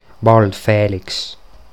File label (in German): Unsere liebe Frau im Walde Sankt Felix Mundart